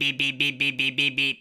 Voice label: bee bee bee bee bee bee bee, gibbrish; Voice